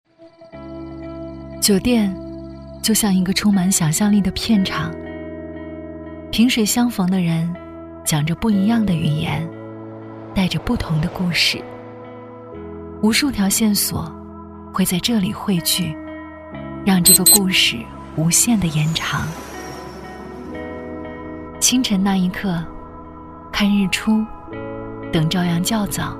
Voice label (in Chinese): 女 走心宣传片 酒店 走心轻松; 低沉走心; 洲际酒店